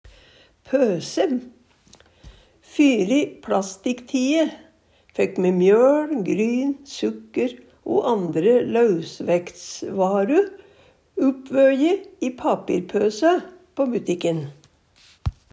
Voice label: "pøse", Numedalsmål; Fyri "plastik-tie" fækk me mjøL, gryn, sukker o andre lausvækts-varu uppvøje i papirpøsø

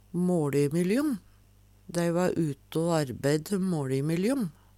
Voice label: malimylljom, Numedalsmål; Preposisjon

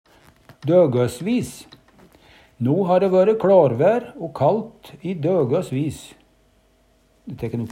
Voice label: "dogosvis", Numedalsmål; Determinativ (mengdeord)

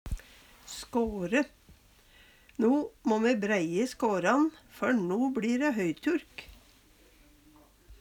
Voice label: "skåre", Numedalsmål; No må me breie skåran, før no bLi dæ go høyturk.

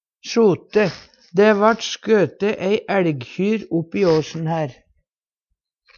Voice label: sjote, Numedalsmål; Verb; Dæ vart skøte ei eLgkjyr uppi åsen her.

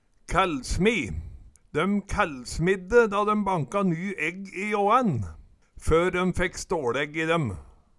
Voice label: kællsmi, Numedalsmål

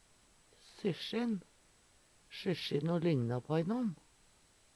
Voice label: syssjin, Numedalsmål; Eksempel på bruk Syssjino ligna på innan.; "sjyssjin" blir og bruka

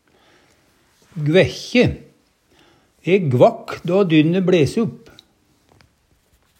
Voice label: gvekkje, Numedalsmål; E gvakk då dynne bles upp.

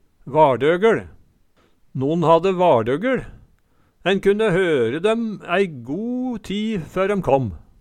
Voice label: vardoggeL, Numedalsmål

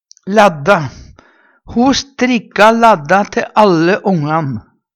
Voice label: ladd, Numedalsmål; Ho strikka ladda te alle ongan.

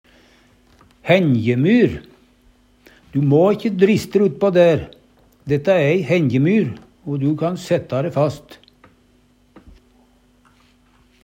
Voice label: "henjemyr", Numedalsmål; dætta æ ei henjemyr o du kan sætta re fast.

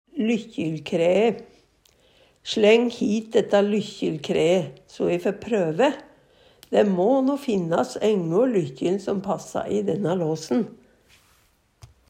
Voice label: lykkjilkraee, Numedalsmål; lykkjylkræe

